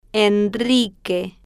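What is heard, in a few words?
"enrique" Spanish, female, slow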